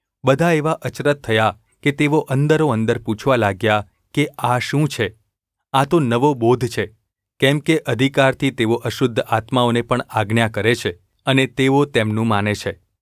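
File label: gu ulb mrk, Gujarati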